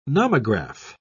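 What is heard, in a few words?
"nomograph", British English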